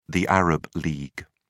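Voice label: "arab league", British English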